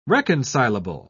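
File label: "reconcilable", British English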